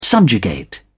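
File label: "subjugate", British English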